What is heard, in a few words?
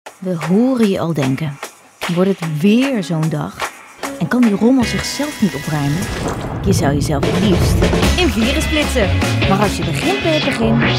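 Voice demoreel NL, Dutch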